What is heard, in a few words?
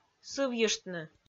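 "сывйыштны", Komi, female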